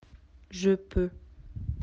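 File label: "pouvoir", French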